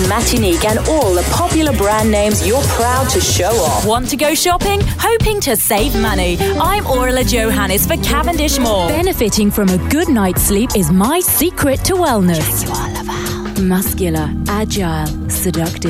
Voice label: commercials, voiceover